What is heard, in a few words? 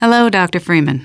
hello, female; hello dr